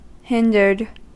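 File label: "hindered", American English; pronunciations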